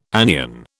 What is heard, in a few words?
"anion", British